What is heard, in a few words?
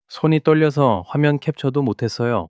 fluster